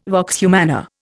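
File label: "vox humana", Indian English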